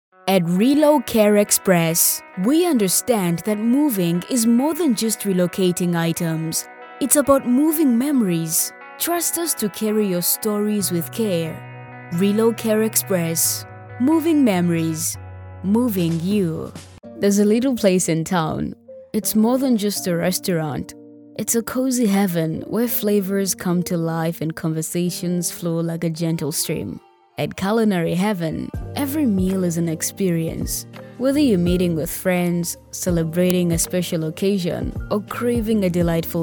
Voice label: Conversational, female; female elearning and explainer voice over